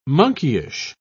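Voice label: "monkeyish", English, British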